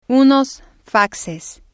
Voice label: "unos faxes", Spanish

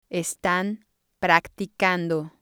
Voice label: "estan practicando", spanish

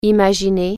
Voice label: imagine, french

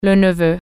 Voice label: nephew, french

